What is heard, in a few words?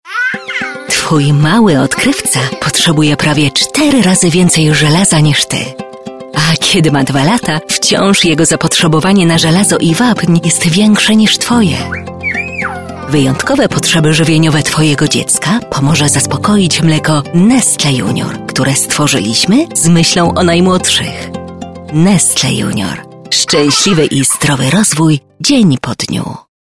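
PL F commercial, Polish, female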